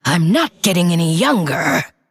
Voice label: Female Undead Thinking